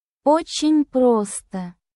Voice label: russian phrases